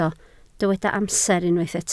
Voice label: "amser", Welsh

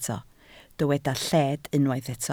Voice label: "lled", Welsh

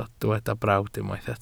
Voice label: "brawd", Welsh

